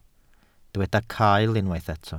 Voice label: "cael", Welsh

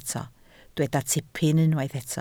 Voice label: "tipyn", Welsh

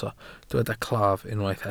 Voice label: "claf", welsh

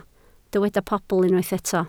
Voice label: "pobl", Welsh

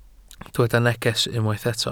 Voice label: "neges", Welsh

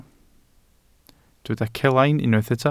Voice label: "celain", Welsh

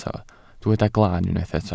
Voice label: "glaan", welsh

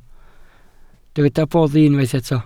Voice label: "boddi", Welsh

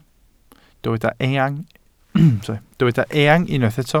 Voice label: "eang", welsh

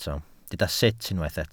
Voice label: "sut", Welsh

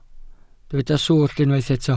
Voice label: "swllt", welsh

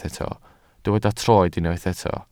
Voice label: "troed", Welsh

